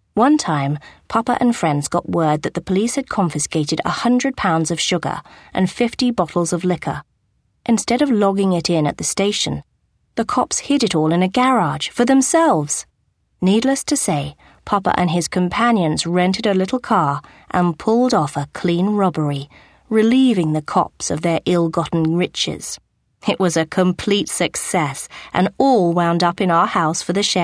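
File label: female nonfiction biography, narrator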